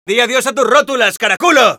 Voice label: Scout taunts es

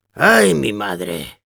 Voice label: Demoman negative vocalization, es

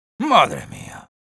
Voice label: Sniper positive vocalization, es

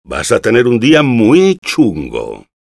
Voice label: Engineer taunts, es; Respuestas del Engineer

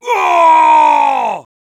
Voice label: Heavy cheers

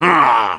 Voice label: Soldier negative vocalization, es

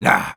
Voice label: Sniper no, es

